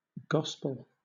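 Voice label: "gospel", British English; pronunciations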